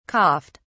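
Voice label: "coughed", British English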